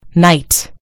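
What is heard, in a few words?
"night", British English; gcompris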